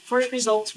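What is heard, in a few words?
voice acting, es, female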